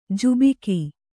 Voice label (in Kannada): "ಝಾಬುಕ", Kannada